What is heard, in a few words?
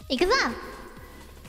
Ikuzo reverb; IRyS JP